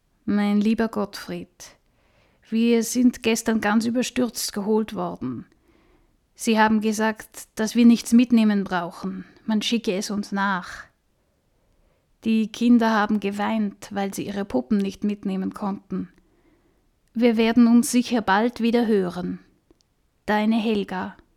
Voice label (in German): aeltere dame